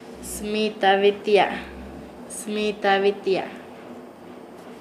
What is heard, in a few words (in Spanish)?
hacer pan, Nasa Yuwe; paez